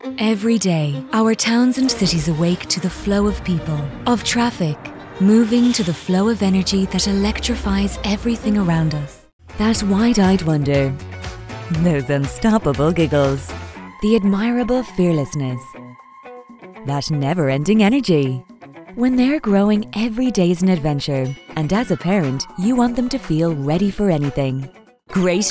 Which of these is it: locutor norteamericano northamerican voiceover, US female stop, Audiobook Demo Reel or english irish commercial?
english irish commercial